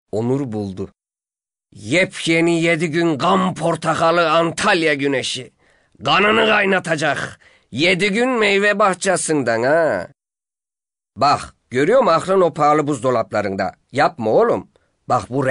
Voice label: diyalekt, turkish dialect voices